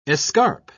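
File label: "escarp", British English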